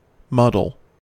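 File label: "muddle", US English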